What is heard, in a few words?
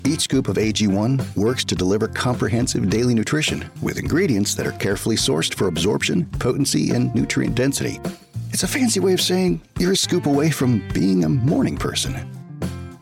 Conversational, voices